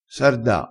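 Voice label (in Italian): "sarda", dialettale, crespinese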